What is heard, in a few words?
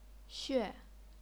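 "xue", chinese pinyin, fourth tone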